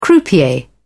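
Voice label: "croupier", British English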